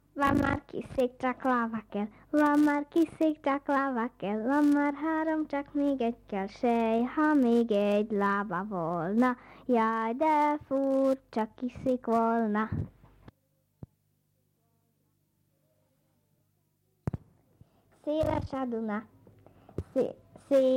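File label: dialect collections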